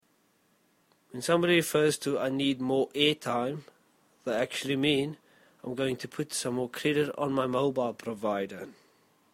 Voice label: South African English; When somebody refers to I need more air time, they actually mean I’m going to put some mor